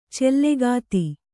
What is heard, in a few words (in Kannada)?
"ಚಲಗತಿ", Kannada